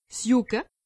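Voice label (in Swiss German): "s'jucke", Alsatian; medecine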